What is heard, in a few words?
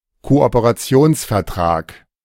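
"Kooperationsvertrag", German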